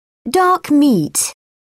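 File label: "dark meat", British English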